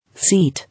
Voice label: "Seat", English